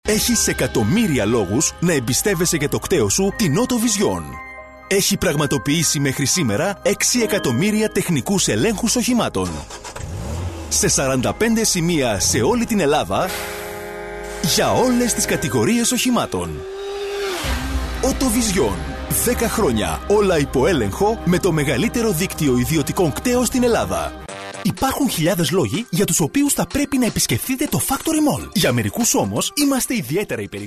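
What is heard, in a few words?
Greek, male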